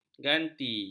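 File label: "ganti", Malay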